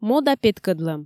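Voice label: "мода петкӧдлӧм", Komi, female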